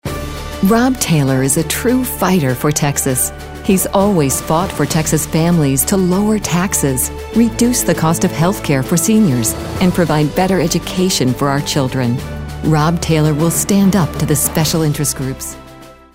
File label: political positive